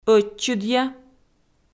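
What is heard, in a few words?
"ӧтчыдъя", Komi, female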